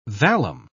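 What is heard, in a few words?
"vallum", British English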